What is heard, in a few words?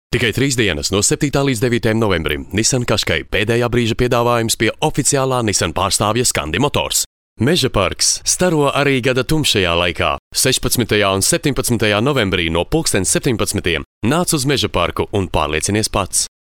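Latvian male commercial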